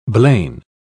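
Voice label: "blain", British English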